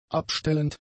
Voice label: "abstellend", german; adjectives